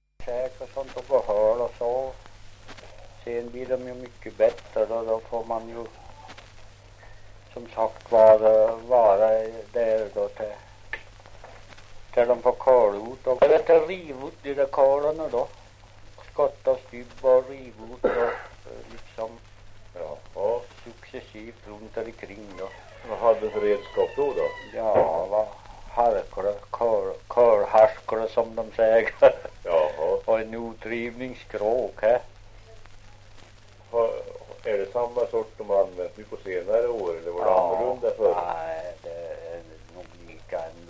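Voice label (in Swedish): Ockelbo Gästrikland, svenska sagor dialekter; Folkminnen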